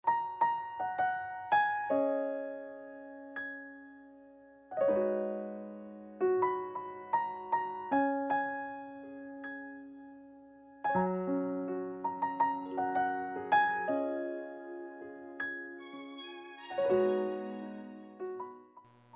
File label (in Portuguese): amantes, Voz Feminina; Telemensagem Amante